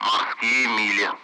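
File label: Speech, Russian; Allied Flight; nautical miles; DCS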